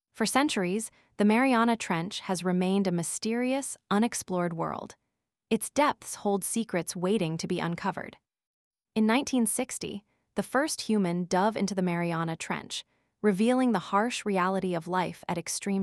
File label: voiceover en